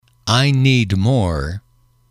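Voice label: "I need more", English, male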